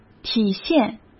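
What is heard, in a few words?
"体现", Mandarin Chinese; chinese-flashcards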